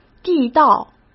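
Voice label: "地道", Mandarin Chinese; hsk; chinese-flashcards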